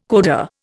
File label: "coda", Indian